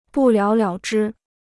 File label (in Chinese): "不了了之", Chinese